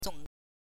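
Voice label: "zong", Mandarin Chinese, neutral tone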